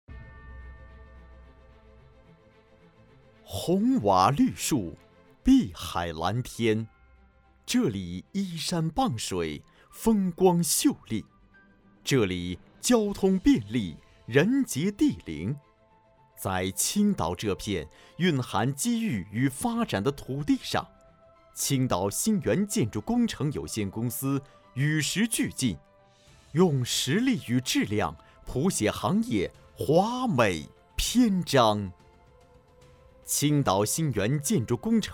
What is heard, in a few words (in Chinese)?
企业宣传片解说 大气浑厚; 青岛兴源建筑有限公司